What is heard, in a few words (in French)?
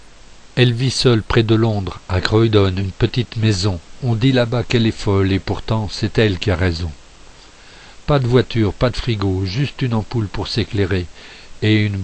Voice Slam; La folle